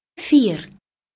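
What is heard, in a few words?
"fier", Dutch, female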